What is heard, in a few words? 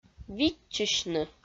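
"виччысьны", Komi, female; audio dict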